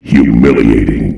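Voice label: "humiliation", male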